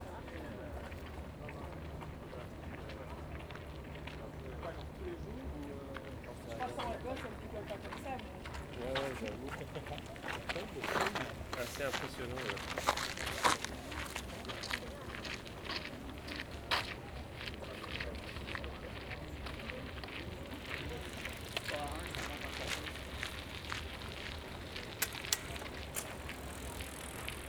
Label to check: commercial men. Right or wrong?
Wrong